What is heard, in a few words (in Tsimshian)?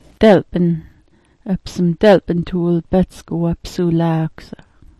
"dalp'n", Sm'algyax